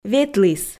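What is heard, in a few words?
"ветліс", Komi, female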